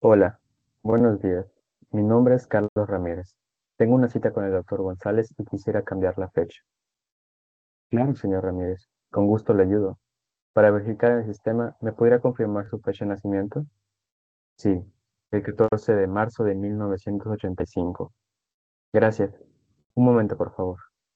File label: spanish speech recognition dataset